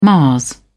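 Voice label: "mars", British English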